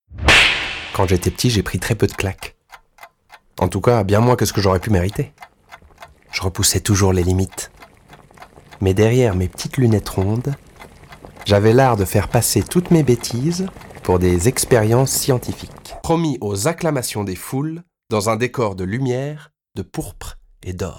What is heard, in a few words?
French